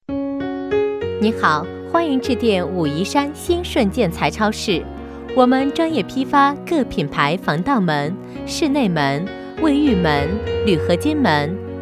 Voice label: 彩铃 建材超市; 鑫顺

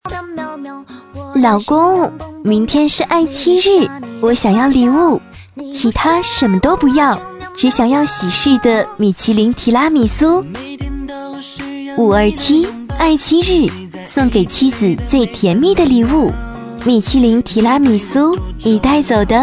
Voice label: 爱妻日; wenrou

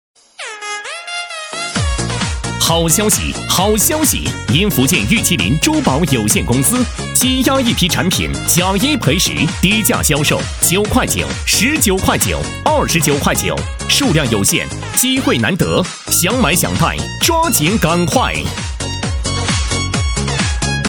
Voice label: 玉器甩卖促销广告

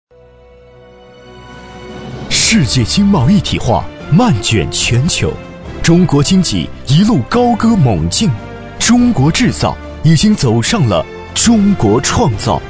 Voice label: 医疗集团宣传片; 康乃馨

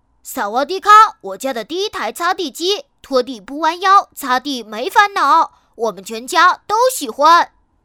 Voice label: 童声 男童欢快广告

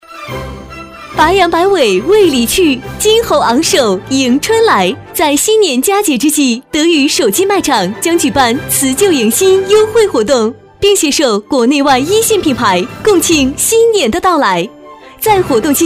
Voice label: 春节促销手机

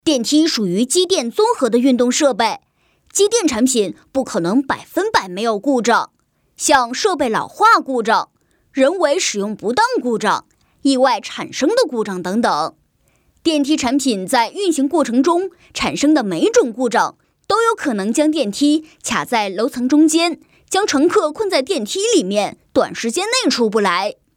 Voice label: 男童课件

